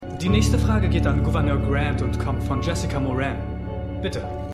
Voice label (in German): Moderator, Stimmproben; Scandal